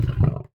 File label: Zombie